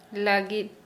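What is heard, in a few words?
"for", Santali, female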